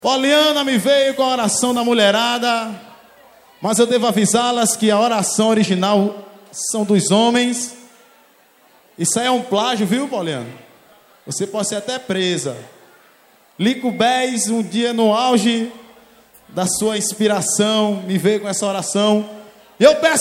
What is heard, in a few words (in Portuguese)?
forro promo; musicshows studiomovel